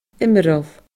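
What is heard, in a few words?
"iomrall", Scottish Gaelic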